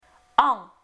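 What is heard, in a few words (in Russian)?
[ã] носовое, French; Phonetique; Voyelles; Lingvo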